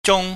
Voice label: "jung", chinese